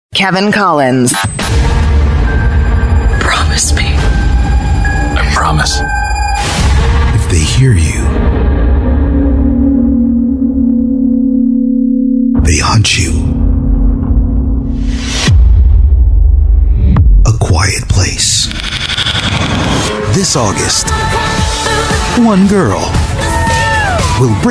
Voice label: Trailers